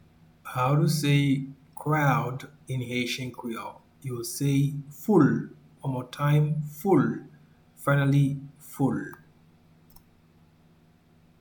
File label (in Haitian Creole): "Foul", Haitian Creole; “Crowd” is translated as “Foul” in Haitian Creole